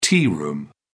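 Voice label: "tea room", British English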